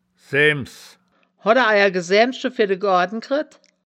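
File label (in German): "saeaems", wittlicher woerterbuch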